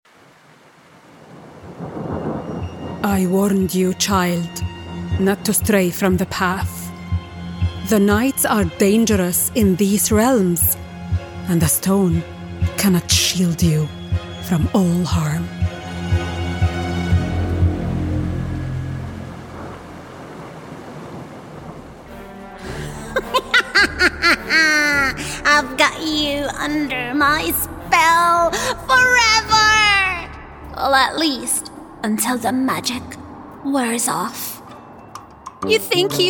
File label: female arabic multiple accents character compilation; Devine Voices